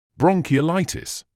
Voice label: "bronchiolitis", medical english uk